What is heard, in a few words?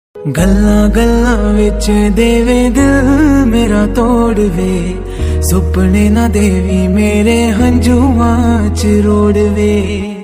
Male Voice Ringtone